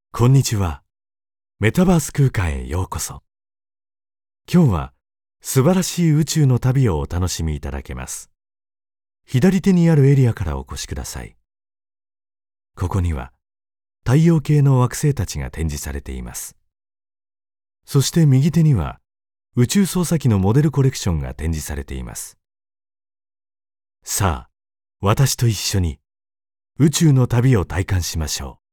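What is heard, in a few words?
Japanese, male, audio guide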